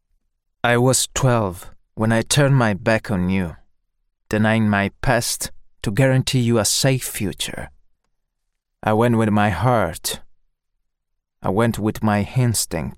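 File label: Italian accented English; GoLocalise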